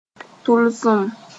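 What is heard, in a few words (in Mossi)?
"tʋlsem", Mooré; objective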